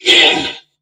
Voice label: Struggle Crewmen; Warframe; Corpus Crewmen; Crewman E; Dialog Barks Battle